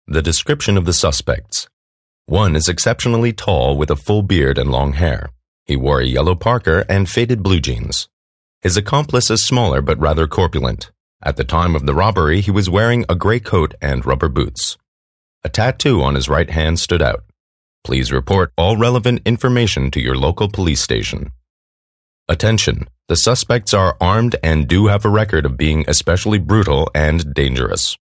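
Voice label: English US, Kommentar Neutral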